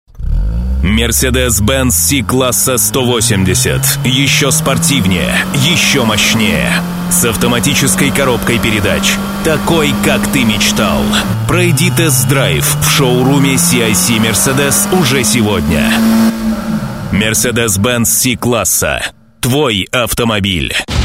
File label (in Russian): diktor; dictor